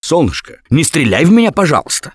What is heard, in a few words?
Friendly Fire Female, russian; Gambler